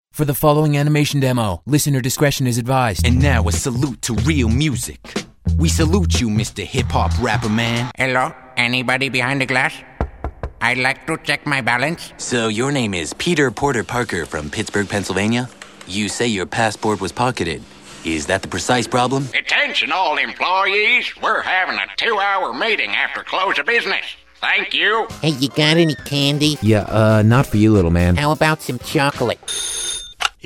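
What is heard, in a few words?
character, voiceovers male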